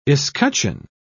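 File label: "escutcheon", British English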